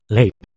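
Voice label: "leip", Proto-Indo-European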